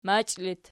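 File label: "machi" locative, Archi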